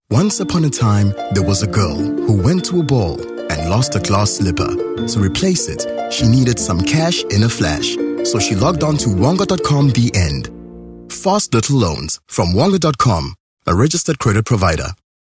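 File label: South African English, male, commercial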